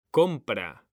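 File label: "COMPRA", Spanish, male; mekanta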